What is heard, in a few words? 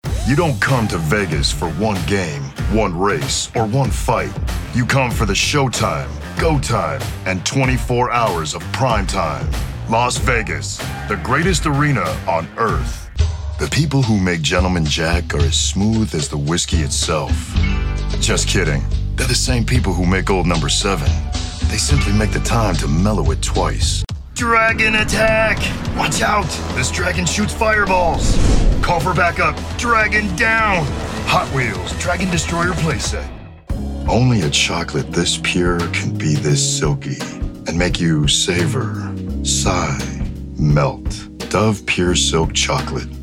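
Commercial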